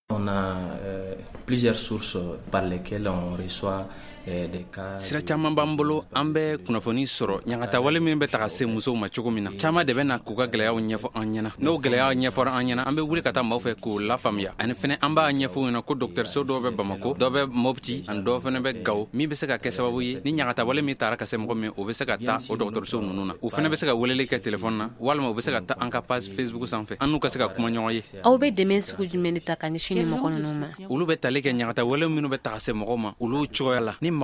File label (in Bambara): magazine bamanankan